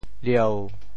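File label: "lieu7", Teochew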